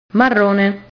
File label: "marrone", Italian